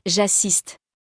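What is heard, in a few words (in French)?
"j'assiste", French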